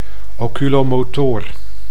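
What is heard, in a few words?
"oculomotoor", Dutch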